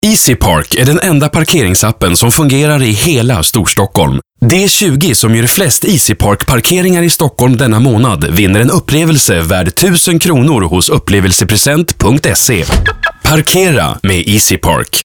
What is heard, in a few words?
se commercial, male svensk